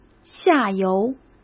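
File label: "下游", Mandarin Chinese; chinese flashcards; hsk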